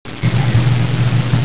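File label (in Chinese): "gu3zhang3", Mandarin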